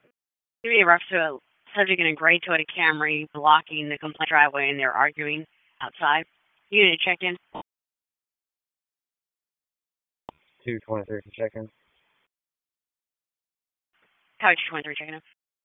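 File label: Police Northeast District Disp, radio; Raleigh; wake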